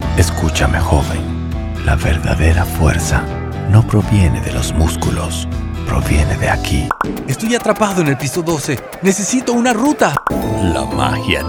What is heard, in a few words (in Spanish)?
neutro, espanol neutro